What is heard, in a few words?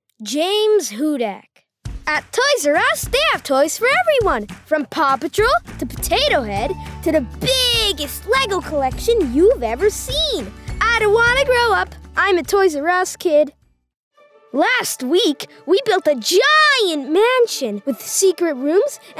Commercial